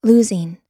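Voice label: "losing", American English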